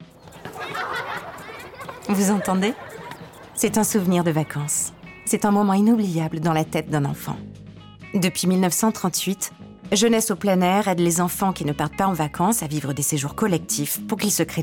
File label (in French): enfants; JPA